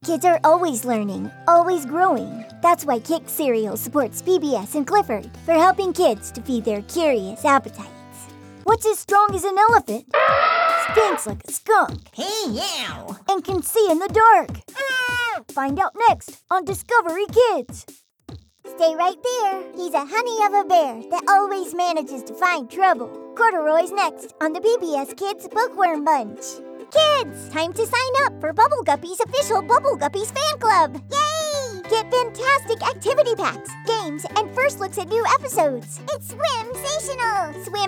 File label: Girl Boy Voice Promos